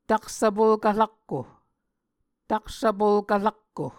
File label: "taksvpolkv-rakko", Muscogee